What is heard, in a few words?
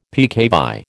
"peccavi", American